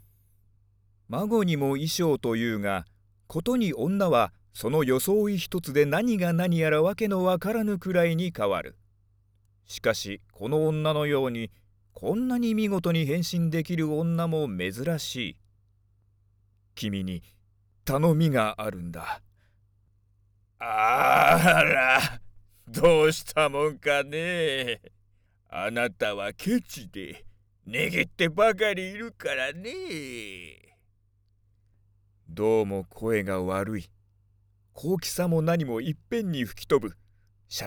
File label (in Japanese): 朗読, セリフ有り; 「太宰治『グッド・バイ』」